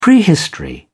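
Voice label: "prehistory", English, British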